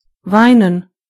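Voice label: "cry", German; gcompris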